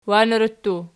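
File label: "wanerattu" nominative, Archi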